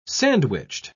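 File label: "sandwiched", British English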